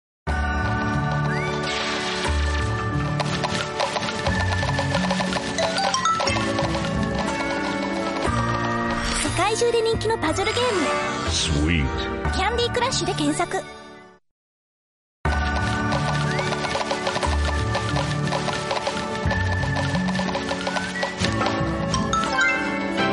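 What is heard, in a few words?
Japanese, female, commercial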